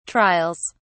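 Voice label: "trials", British English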